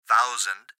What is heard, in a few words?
Gps Voice, Male